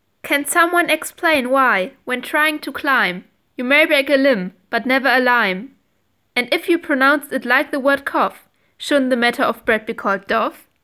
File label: strange pronounciation